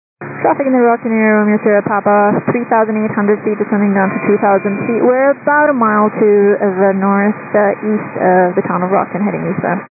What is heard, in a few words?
122 925MHz, scanner radio